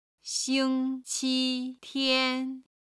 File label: "xing1 qi1 tian1", chinese